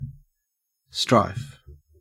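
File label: "strife", Australian English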